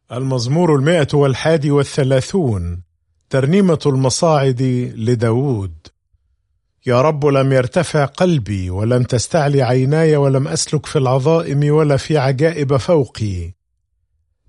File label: Psalm, arabic bible; psalms